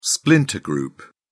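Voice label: "splinter group", British English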